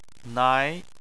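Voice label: "nai", Chinese, second tone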